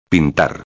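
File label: "pintar", Spanish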